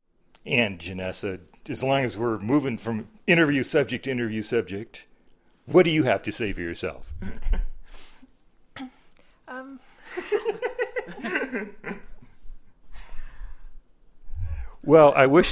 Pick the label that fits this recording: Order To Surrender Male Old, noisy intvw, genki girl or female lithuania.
noisy intvw